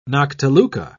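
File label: "noctiluca", British English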